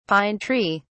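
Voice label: "pine tree", British English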